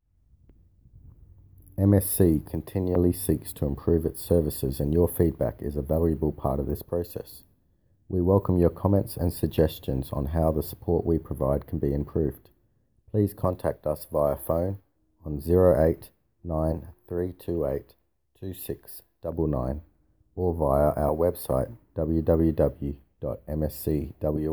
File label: Feedback English